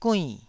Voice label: "guinn4", Taiwanese syllable

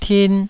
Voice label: "tin2", Taiwanese

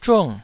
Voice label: "zhung4", Taiwanese

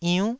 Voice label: "iunn9", taiwanese syllables

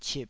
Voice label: "cip3", Taiwanese

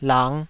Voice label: "lang5", Taiwanese syllable

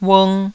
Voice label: "ung2", Taiwanese

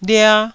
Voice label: "lia1", Taiwanese

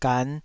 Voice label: "gan5", Taiwanese syllable

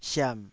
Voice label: "siam3", taiwanese

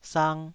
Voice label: "sang2", taiwanese

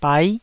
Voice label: "bai9", taiwanese

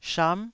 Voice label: "sham9", taiwanese Syllables

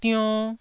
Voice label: "dionn1", Taiwanese syllable

